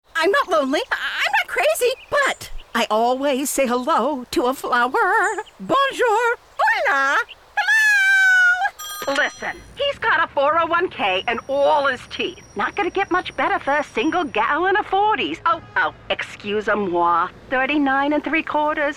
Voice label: Animation